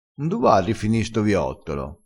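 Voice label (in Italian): "rifini", vocabolario dialettale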